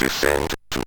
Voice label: MOS6581R3, Electronic Speech Systems; Kennedy Approach